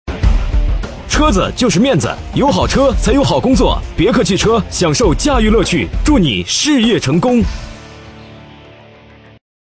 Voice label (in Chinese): 男66号电台广告; 别克汽车